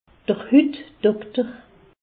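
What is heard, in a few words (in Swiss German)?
"hutdokter", Alsace, Haut Rhin; medecine; dermatoloque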